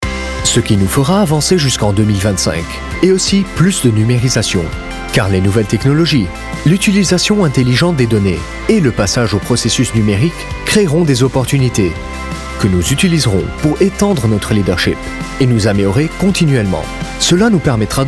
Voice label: Corporate Narration; Digitalization

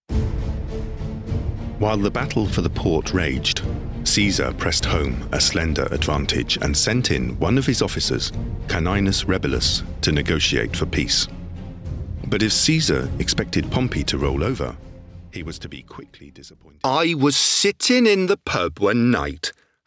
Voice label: Drama Narration

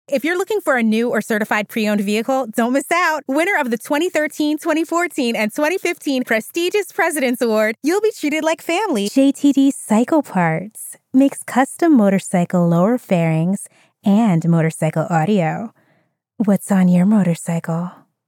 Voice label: Automotive VO

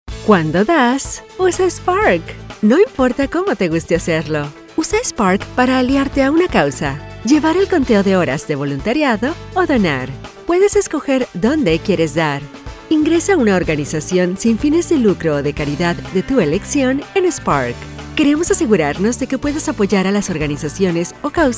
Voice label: Explainer video; Spark

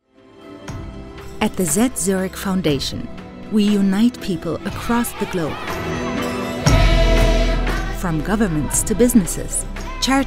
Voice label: EN Imagefilm; Z Zurich Foundation A Human Touch

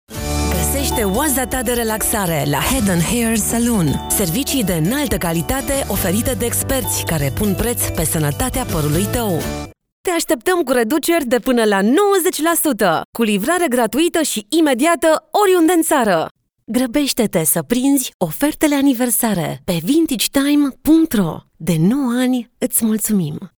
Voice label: Commercial

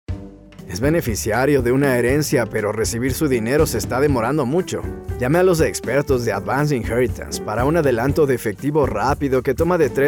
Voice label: Mexico Regional

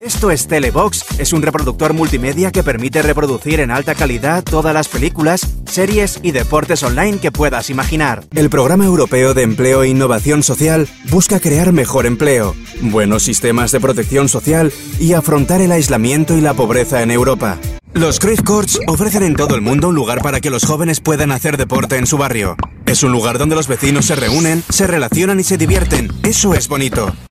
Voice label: Corporate Upbeat

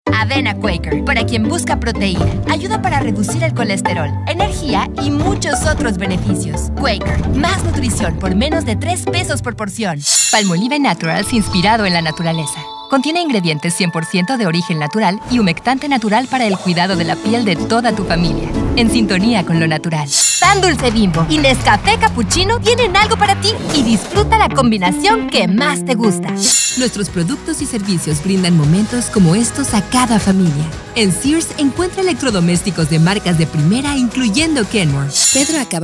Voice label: BROADCAST

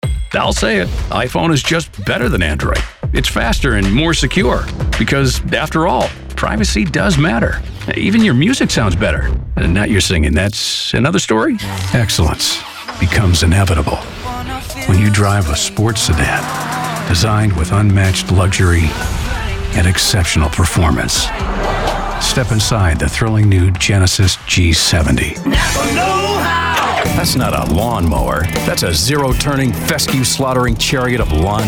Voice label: Voice Over Commercial

